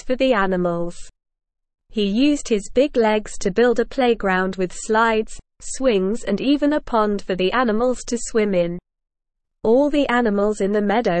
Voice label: ESL Short Stories for Kids SLOW reading The Giant Sheep